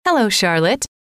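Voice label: "hello", female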